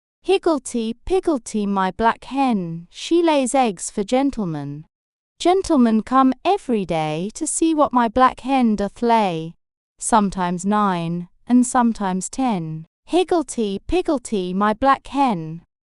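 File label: female British, classic rhymes; Higglety Pigglety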